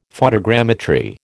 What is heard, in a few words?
"photogrammetry", American